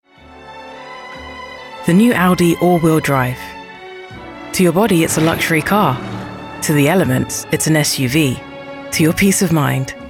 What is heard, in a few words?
Commercial, voice-over